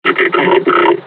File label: taunt, combine charger; Half Life Alyx